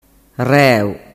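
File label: "reu", Sicilian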